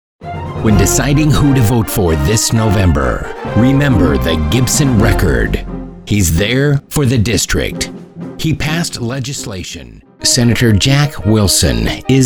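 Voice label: Political voice-over Montage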